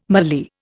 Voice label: "mallee", Indian